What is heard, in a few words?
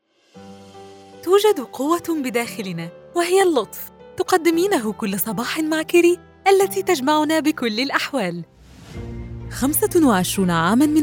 Standard Arabic Commerical Demo Reel